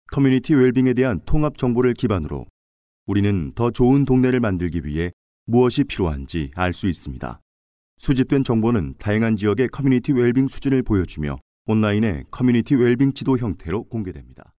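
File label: Korean, male, narration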